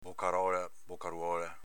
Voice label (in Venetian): "bocarola", Veneto